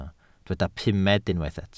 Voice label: "pumed", welsh